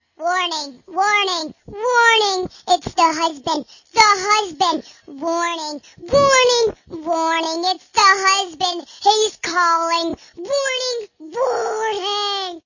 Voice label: warning husband calling funny tone ringtone

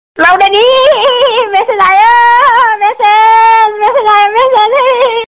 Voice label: funny message sms tone ringtone; funny message aya; sms ringtones

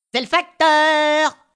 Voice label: french mailman ringtone

